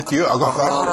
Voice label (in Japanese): "akahra", minami yamagata